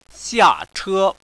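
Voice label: spoken sound "xiache", Chinese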